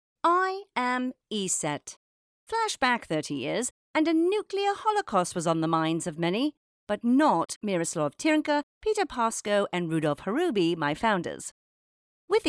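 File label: tech event British voiceover; ESET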